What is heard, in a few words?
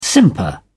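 "simper", British English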